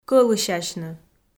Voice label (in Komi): "кӧлысясьны" Komi